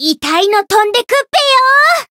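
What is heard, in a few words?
heal, Japanese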